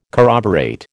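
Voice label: "corroborate", American English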